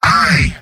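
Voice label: "yes", robot; demoman; mvm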